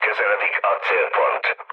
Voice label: near waypoint, mood low, speech hun; War Thunder

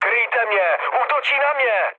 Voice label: aircraft damaged, speech cze, mood low; War Thunder

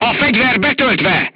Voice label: voice message charged, crew tanks hungarian

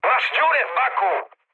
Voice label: damaged oil mood high, speech pol; War Thunder

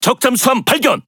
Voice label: Speech Detection Enemy Sub, KO; World Of Warships

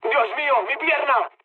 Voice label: damaged pilot mood low; speech; War Thunder

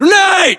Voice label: inform incoming grenade, US; localized english; battlechatter

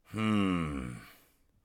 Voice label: mutter, voice; Escape from Tarkov

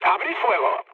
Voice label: attack position, mood low, speech; War Thunder

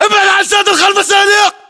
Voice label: order cover boxes, battle chatter, english

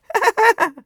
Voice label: mutter; Escape from Tarkov

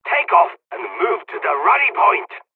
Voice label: takeoff, dialogs turkish; midway; War Thunder

